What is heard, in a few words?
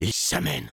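Sniper incoming, French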